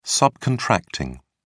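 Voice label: "subcontracting", British English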